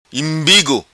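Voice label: "Imbigo", patua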